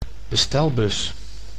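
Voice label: "bestelbus", Dutch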